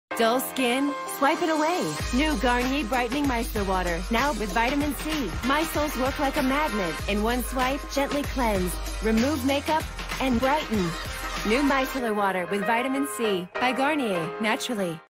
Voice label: commercial; Garnier Micellar Water w Vitamin C; Prodigious

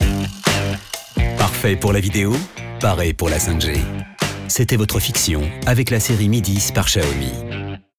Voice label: Billboard; XIAOMI

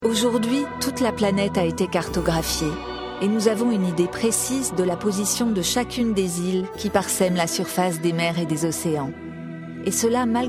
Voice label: Narration; Expedition Malpelo Seamounts

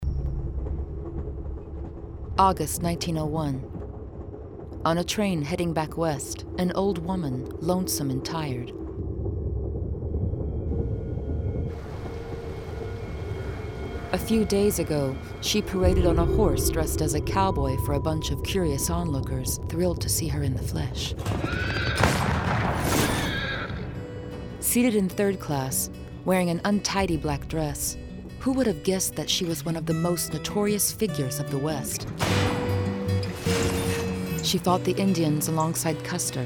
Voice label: Trailer English; Calamity Jane

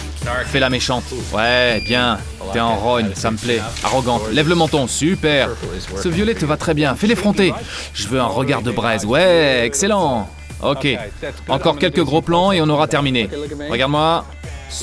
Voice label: Voice Over; Flesh Air

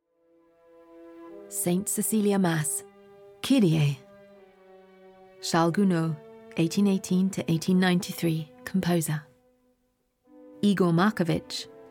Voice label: English; Gounod; Saint Cecilia Mass Introduction; Musee de la Vie Romantique

